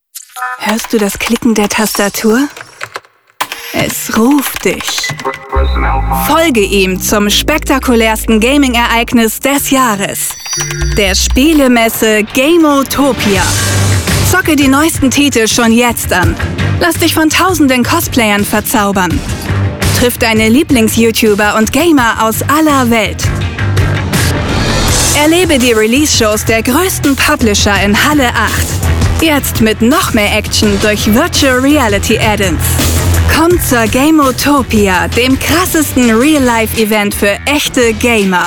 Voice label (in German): Eventtrailer